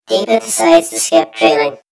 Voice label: story voiceover; mission M2lost; yumpod